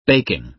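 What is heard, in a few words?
"baking", American English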